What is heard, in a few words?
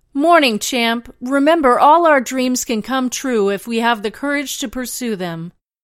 Female all dreams